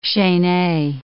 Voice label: "chaine", English

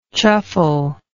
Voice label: "chuffle", English

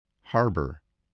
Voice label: "harbor", English, spelling

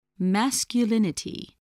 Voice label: "masculinity", spelling voice

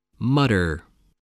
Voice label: "mutter", voice

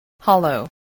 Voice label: "hollow", English, spelling

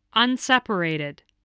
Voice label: "unseparated", English spelling voice

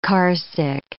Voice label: "carsick", English

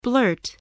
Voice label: "blurt", spelling

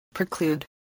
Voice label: "preclude", voice, spelling

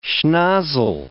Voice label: "schnozzle", English

